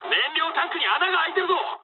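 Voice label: Japanese tank damaged mood high; War Thunder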